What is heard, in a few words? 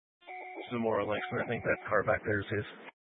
Mesa County Sheriff Dispatch